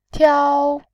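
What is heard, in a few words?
"tiao", Mandarin Chinese, first tone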